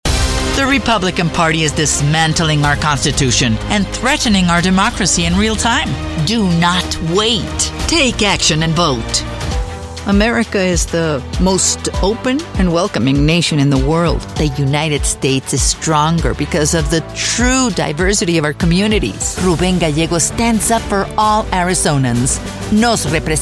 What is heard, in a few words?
Political Eng